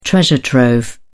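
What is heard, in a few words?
"treasure trove", British English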